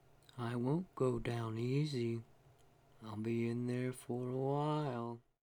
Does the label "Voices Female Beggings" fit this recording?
Yes